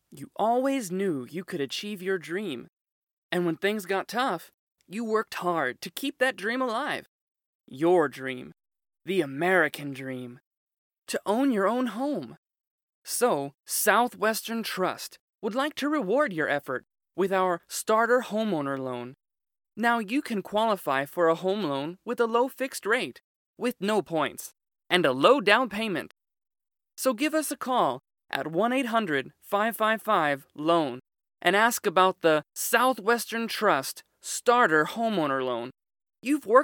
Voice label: 外籍男 bank commercial 银行商业广告

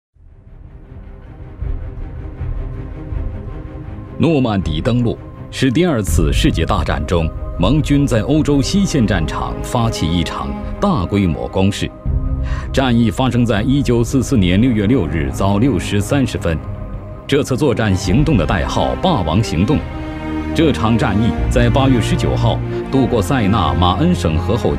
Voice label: 男 纪录片 战争 诺曼底登陆

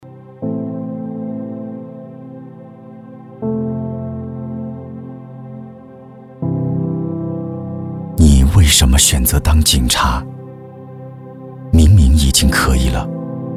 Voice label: 男, 独白, 青年走心微电影旁白; 无悔青春

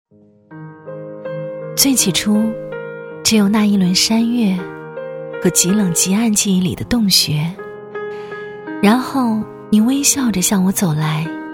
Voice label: 女, 微电影 自然

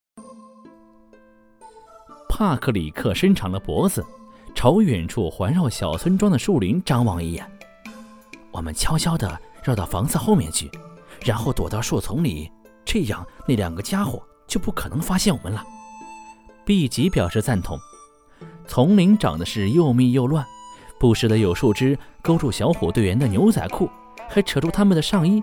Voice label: 男 电台 惊险恐怖 年轻磁性

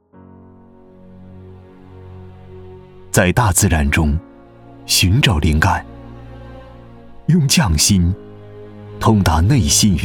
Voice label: 男,走心旁白; 时代文仪

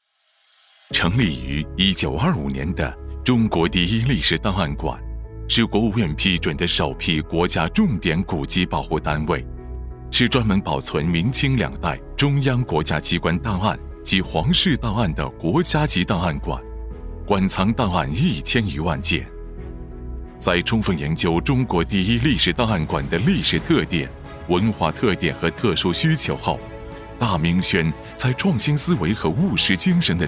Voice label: 男 宣传片; 大明宣红木家居

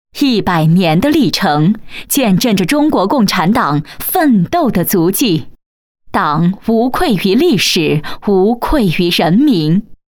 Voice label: 女 专题; 建党一百周年; 电子管

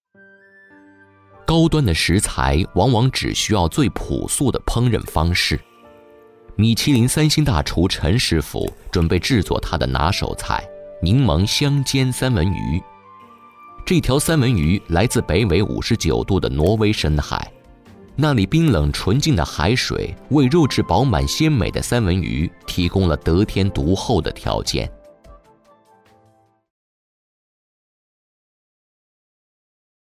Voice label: 男 纪录片 三文鱼; 舌尖

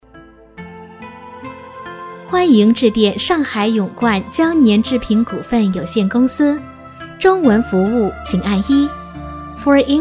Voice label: 英女-中英文彩铃; 上海勇冠服务公司

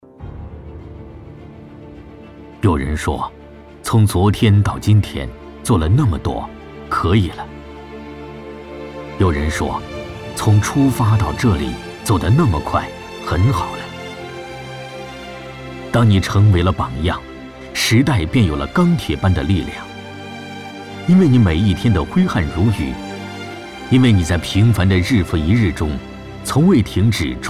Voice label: 男 宣传短片

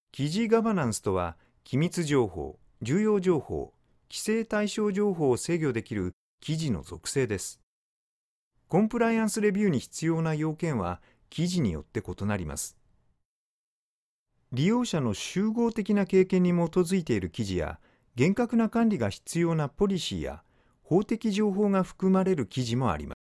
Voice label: 日语男 指令播报

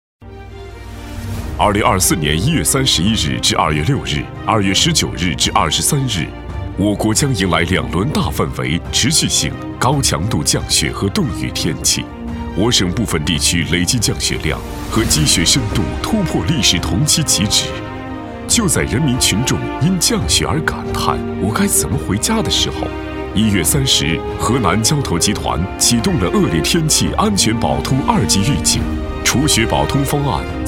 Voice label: 男,专题,稍紧迫感

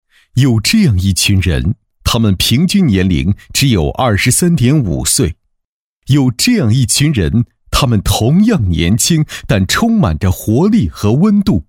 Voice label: 男-党政汇报-年轻激情

